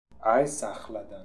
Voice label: Aiesakhaldan, Beelzebub pronounciations; Fourth Way

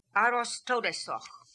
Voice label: "Arostodesokh", Beelzebub pronounciations; Fourth Way

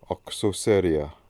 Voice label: "Oksoseria", pronounciations; Fourth Way; Beelzebub

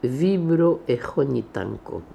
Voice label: "Vibroechonitanko", pronounciations; Fourth Way; Beelzebub pronounciations

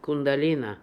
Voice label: "Kundalina", pronounciations; Fourth Way; Beelzebub

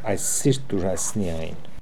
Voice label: "Aiessirittoorassnian", pronounciations; Fourth Way; Beelzebub

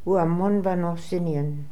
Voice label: "Ooamonvanosinian", pronounciations; Fourth Way; Beelzebub

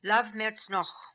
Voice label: "Lav Mertz Nokh", pronounciations; Fourth Way; Beelzebub

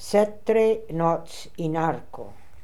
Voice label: "Setrenotzinarco", pronounciations; Fourth Way; Beelzebub

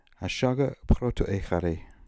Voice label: "Ashagiprotoehary", Beelzebub pronounciations; Fourth Way

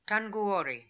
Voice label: "Tanguori", pronounciations; Fourth Way; Beelzebub

